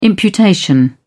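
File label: "imputation", British English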